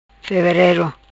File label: "Feverero", Macanese